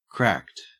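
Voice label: "cracked", Australian English; pronunciations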